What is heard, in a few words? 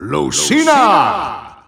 Dutch Announcer; Lucina; SSBU